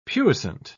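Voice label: "puissant", British English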